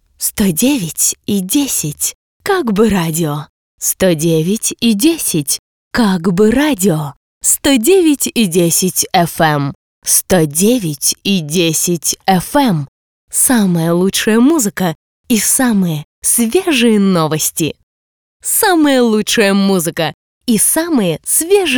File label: jingle, voices